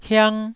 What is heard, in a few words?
"hiang1", Taiwanese syllable